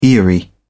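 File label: "aerie", British English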